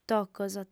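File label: "tocos", Sardinian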